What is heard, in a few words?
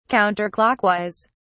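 "counter clockwise", British English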